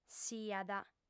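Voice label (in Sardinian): "siat", female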